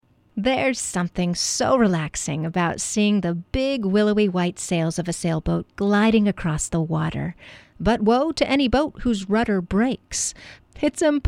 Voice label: Dry; Broken Rudder